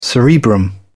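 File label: "cerebrum", British English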